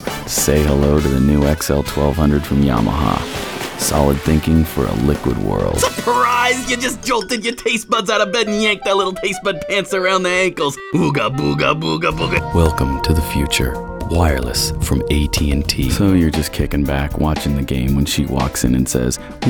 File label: Commercial